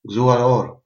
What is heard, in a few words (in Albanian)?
cheers, albanian gheg